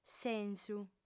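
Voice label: "sensu", female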